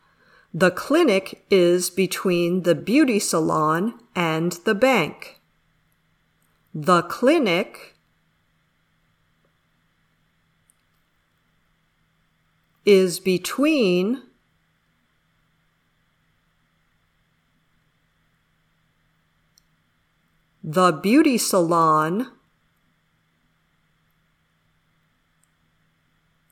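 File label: "dictation", English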